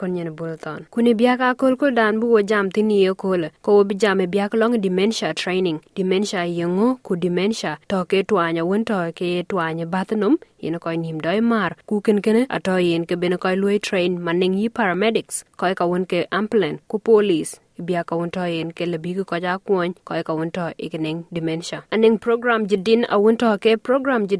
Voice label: dinka, podcast; Tuäny riër nhom; Dementia; SBS Dinka